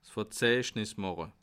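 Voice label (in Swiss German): "verzeichnis", Alsatian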